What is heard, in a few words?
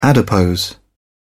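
"adipose", British English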